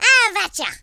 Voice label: "fire", Irish; speech

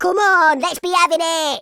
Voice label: hurry, Madchester

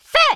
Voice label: fire, speech, French

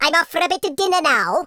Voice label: kamikaze, Welsh; speech

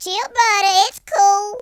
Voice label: yes sir, Gangsta; speech

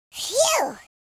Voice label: relief, American Wrestler

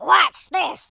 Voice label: "watch this", War General; speech

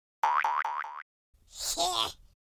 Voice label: taunt, Irish; speech

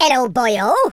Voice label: "hello", Welsh; speech

